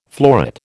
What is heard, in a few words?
"florid", American